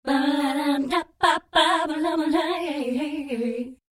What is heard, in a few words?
voice Detuned Chorus